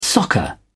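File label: "soccer", British English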